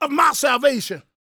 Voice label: PREACHIN, Gospel PREACHER